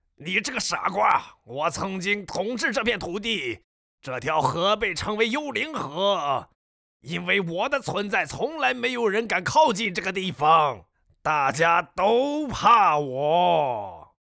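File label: chinese mandarin male character; voice demos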